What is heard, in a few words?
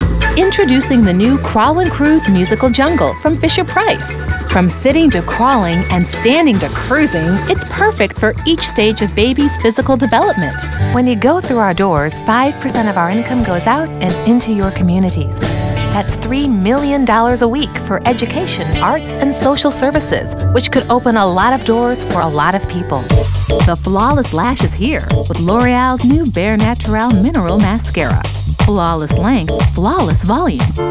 Commercial